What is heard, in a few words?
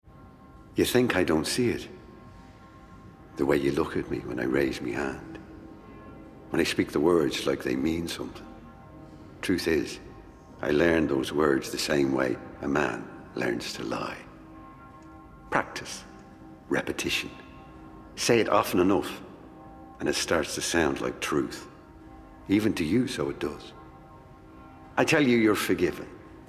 Gaming Irish Priest